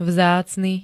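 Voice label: "vzácny", Slovak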